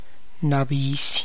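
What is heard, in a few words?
Tlacochahuaya Zapotec; stingy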